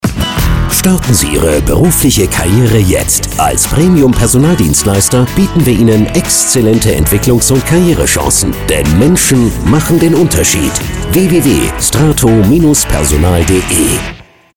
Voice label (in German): Spot; Strato; Personal Version